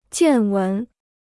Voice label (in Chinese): "见闻", Chinese